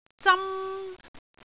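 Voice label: "zam1", Cantonese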